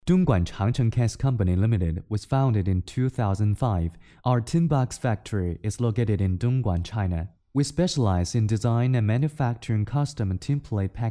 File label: 纯英文 公司简介男; 东莞长城